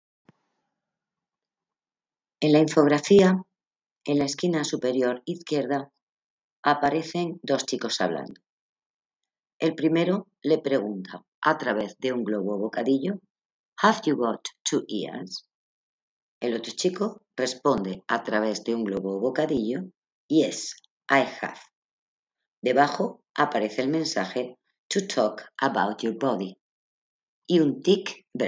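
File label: count to five in french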